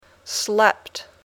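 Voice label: "slept", English; sleep (dormir)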